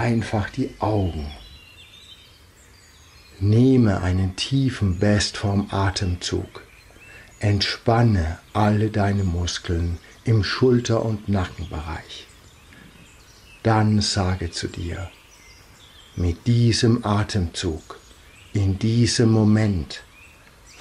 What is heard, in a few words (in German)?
Meditation; Gute Vorsätze